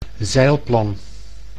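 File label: "zeilplan", Dutch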